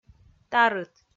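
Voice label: "тарыт", Komi, female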